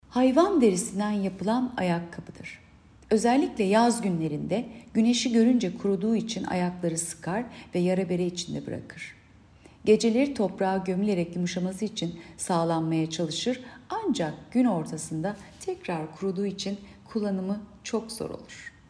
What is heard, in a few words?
turkce